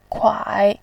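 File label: "kuai3", Mandarin Chinese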